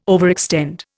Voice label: "overextend", Indian